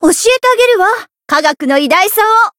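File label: variable chant, Japanese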